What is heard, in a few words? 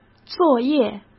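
"作业" Mandarin Chinese; hsk; chinese-flashcards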